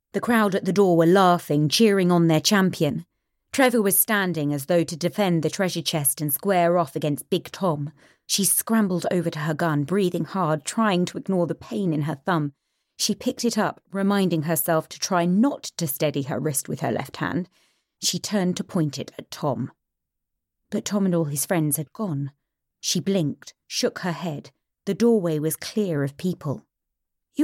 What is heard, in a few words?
Audiobook